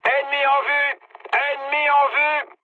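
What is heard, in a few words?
enemy detected, mood high, French; War Thunder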